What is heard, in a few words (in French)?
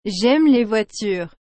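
"J'aime les voitures", French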